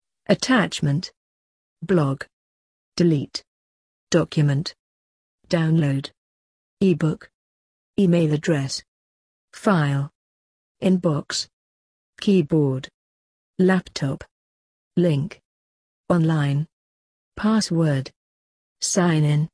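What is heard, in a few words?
computers, British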